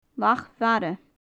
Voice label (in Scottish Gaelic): "lach mhara", gaelic; scaup; lachan-mara; Faclan Nàdair